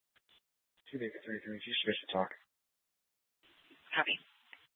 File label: Mesa PD Central Dispatch, scanner